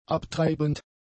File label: "abtreibend", german; adjectives